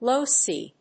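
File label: "low C", English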